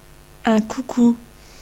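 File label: cuckoo, French; animals; birds; tuxpaint-stamps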